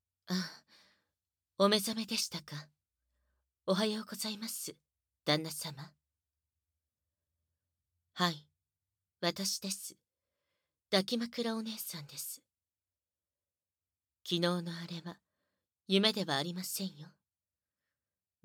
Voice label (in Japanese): 耳舐め罵倒オナサポ治療, ASMR